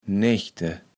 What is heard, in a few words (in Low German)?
"Nechte", Platt